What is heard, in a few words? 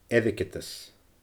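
"airgeadas", Scottish Gaelic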